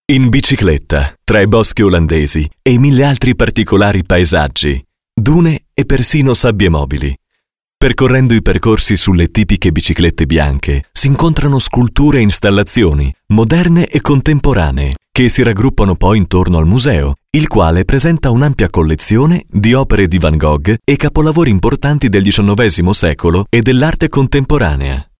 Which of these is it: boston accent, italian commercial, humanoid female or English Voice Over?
italian commercial